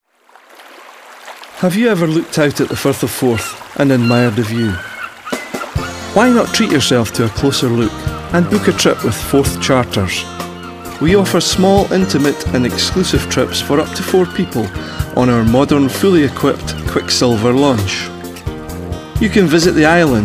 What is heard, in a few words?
advert